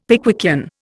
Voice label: "Pickwickian", Indian; Dictionary

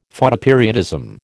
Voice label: "Photoperiodism", American

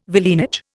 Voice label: "villeinage", Indian

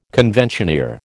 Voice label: "conventioneer", American

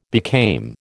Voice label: "became", American

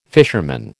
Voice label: "fisherman", American

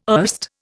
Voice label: "erst", Indian

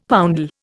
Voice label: "poundal", Indian; Dictionary

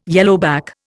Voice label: "yellowback", Indian

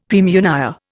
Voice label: "praemunire", Indian; Dictionary

